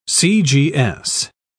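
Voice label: "cgs", British English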